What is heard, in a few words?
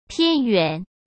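"偏远", Mandarin Chinese; hsk; chinese flashcards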